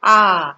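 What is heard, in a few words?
"ah", Nigerian Pidgin, female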